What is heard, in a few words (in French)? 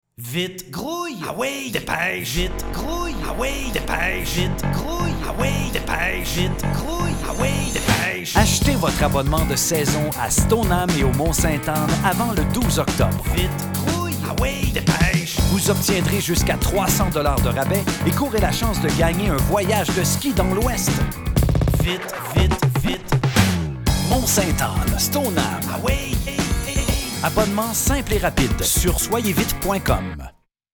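spot, spots radio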